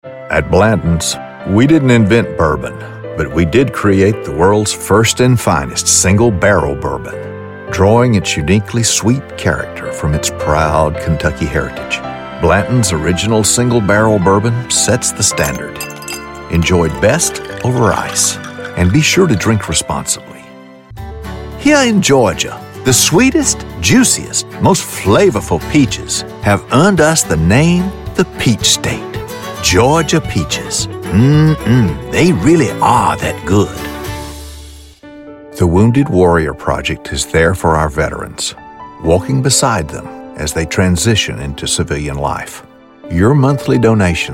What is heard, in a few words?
Commercial